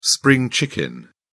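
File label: "spring chicken", English, British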